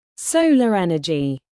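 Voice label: "solar energy", British; Language, linguistics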